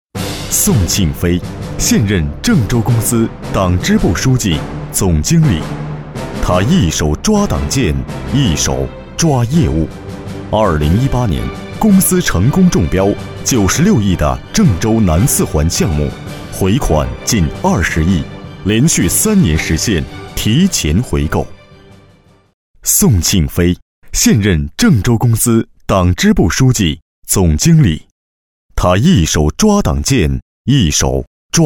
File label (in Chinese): 男国 专题 颁奖 颁奖